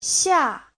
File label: "xia4", Chinese; 夏 天; (danh từ) mùa hè; Giáo trình chuẩn HSK3